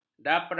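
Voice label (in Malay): "daperas", Malay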